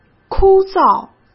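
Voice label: "枯燥", Mandarin Chinese; chinese flashcards; hsk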